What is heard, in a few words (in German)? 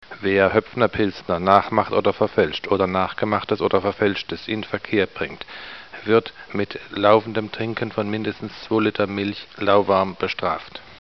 werbung; Hoepfer Werbespot - Falschbier